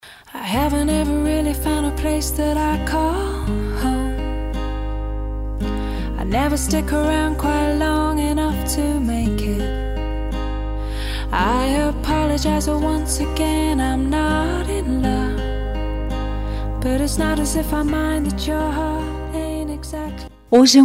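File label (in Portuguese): telemensagem, female; ANIV SOBRINHO PL FEM; aniversario sobrinha sobrinho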